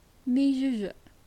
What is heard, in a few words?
"girl", naxi